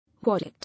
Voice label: "Wallet", English